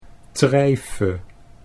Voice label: "treife", Dutch

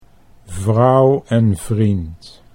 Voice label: "vrouwenvriend", Dutch

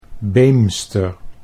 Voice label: "Beemster", Dutch

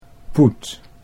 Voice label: "poet", Dutch

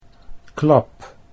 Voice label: "klap", Dutch

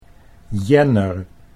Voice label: "jenner", Dutch

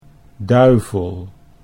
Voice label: "duivel", Dutch

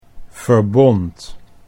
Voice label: "verbond", Dutch

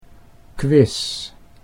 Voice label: "quiz", Dutch

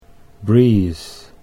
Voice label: "breathe", Dutch English

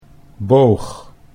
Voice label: "boog", Dutch; 'bow' for shooting arrows

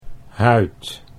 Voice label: "huid", Dutch

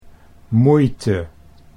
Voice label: "moeite", Dutch

